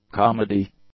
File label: "comedy", English; nouns